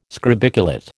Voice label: "scrobiculate", American

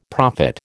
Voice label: "prophet", American

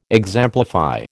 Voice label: "exemplify", American

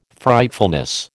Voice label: "Frightfulness", American

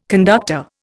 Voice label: "conductor", Indian

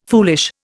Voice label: "foolish", Indian

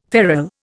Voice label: "ferrel", Indian

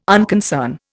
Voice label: "unconcern", Indian

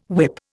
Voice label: "whip", Indian

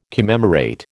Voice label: "commemorate", American

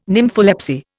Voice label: "nympholepsy", Indian

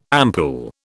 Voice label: "ampoule", British

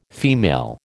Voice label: "female", American

